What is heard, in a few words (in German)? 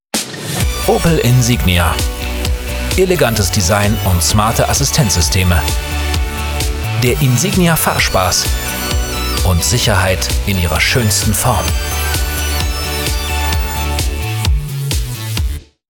Demo Werbung